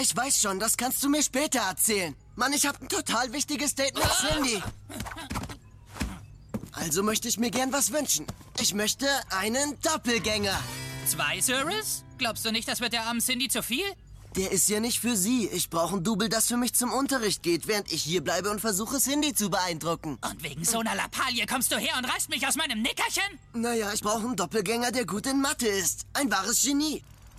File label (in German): Synchron